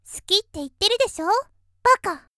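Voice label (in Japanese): 少女好きって言ってるでしょ、馬鹿; 元気な少女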